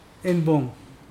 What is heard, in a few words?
"at night", Mokilese